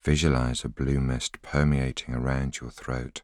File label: voiceover